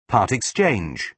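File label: "part exchange", British English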